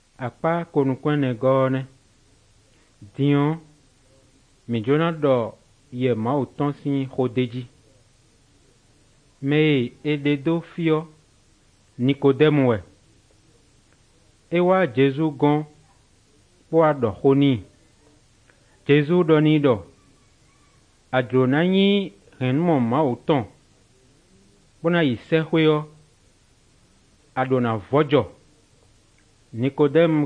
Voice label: good news, fon fongbe bible drama